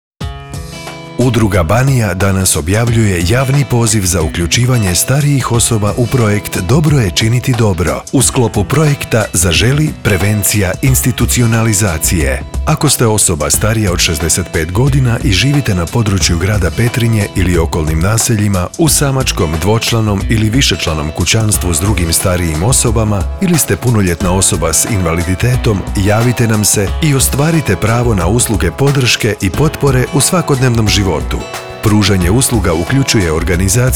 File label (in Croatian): SPOT